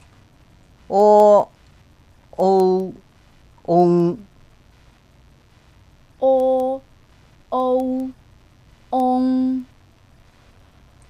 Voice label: pinyin, chinese